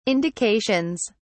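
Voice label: "indications", British English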